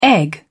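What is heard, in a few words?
"egg", British English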